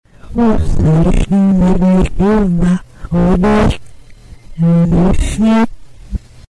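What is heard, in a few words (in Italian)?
"oh sai lì", Italian dialect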